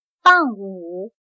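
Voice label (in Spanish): "傍午", chino mandarin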